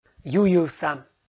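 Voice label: "юйывса", Komi, male; kpv audio dict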